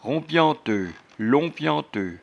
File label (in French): "ronpianteu", patois jura